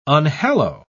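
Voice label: "unhallow", British English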